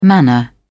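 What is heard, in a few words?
"manner", British English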